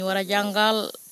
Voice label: female speaker